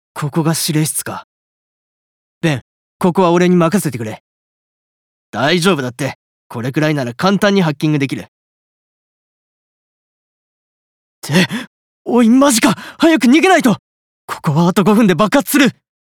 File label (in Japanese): ハッカー20代